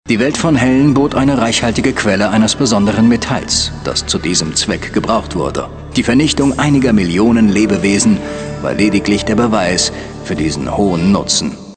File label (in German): DE, Stimmproben; Planetopia